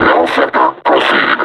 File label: reconnoiter search, vo combine suppressor